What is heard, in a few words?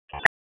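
Dispatch 1, P25; wake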